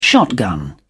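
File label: "shotgun", British English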